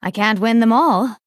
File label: Female Dryad Concede